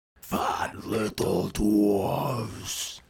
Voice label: Menacing creature antagonist with brutal, guttural vocalizations and primal menace, Audiobook Creature; Ogre; Audiobook Villain; Monsters & Creatures